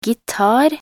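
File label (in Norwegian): "gitar", Norwegian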